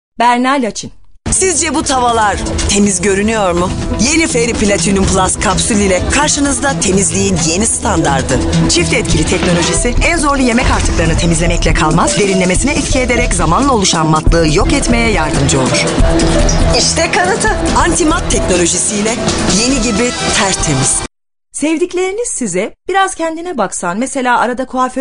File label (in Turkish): turkce kadin